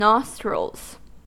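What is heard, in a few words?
"nostrils", US English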